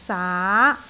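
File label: "saa2", Cantonese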